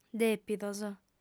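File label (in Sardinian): "dèpidos", female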